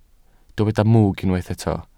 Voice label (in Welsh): "mwg", Welsh